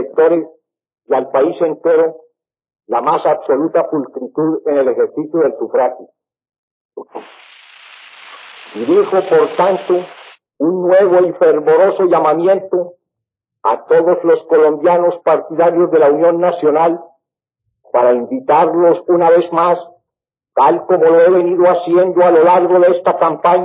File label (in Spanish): Alocución con motivo de las elecciones; Ospina, M. (1946); Caudillos y muchedumbres; Todelar; eleccion 1946 colombia